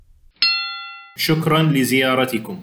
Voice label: Thank You with effects Arabic